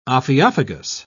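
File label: "ophiophagous", British English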